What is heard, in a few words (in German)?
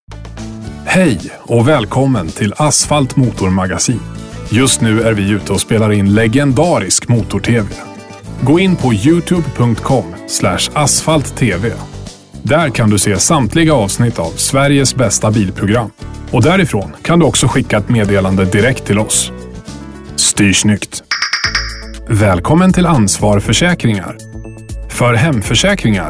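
telefonansagen sprecher Swedish; Native Speaker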